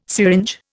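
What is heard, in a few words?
"syringe", Indian English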